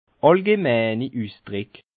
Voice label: "allgemeini", Bas Rhin; expressions populaires

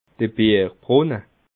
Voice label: "bierbrunne", alsace

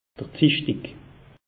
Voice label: "zischtig", alsace; meteo

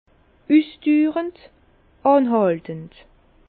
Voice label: "usdurend", Bas Rhin; persistant

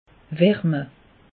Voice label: "warme", alsace; meteo

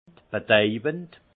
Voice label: "betaubend", alsace; vin; capiteux

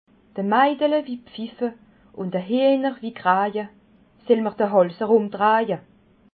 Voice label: "maidle", Alsace

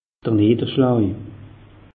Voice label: "nidderschlaj", alsace; meteo

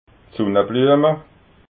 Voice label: "sunnabluema", alsace

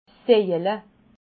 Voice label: "saila", alsace

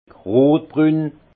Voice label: "rotbrun", alsace; vin; rouge brun

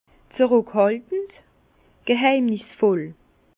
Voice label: "zruckhaltend", Bas Rhin; vin; discret

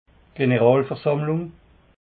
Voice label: "generalversammlung", alsace

